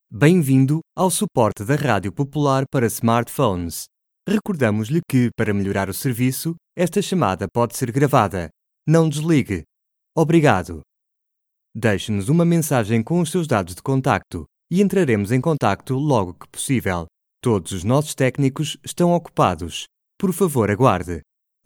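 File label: IVR Portuguese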